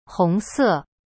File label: "红色", Chinese; hong se